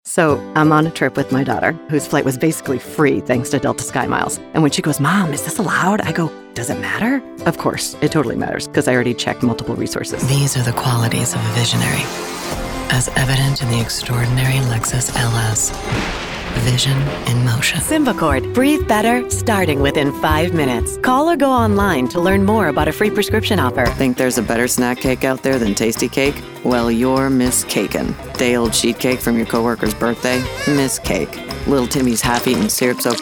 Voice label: Commercial vo